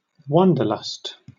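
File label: "wanderlust", British English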